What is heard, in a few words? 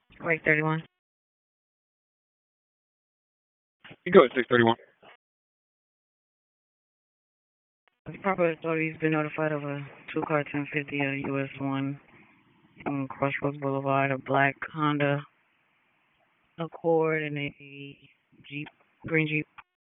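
Dispatch, p25; wake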